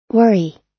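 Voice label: "worry", US English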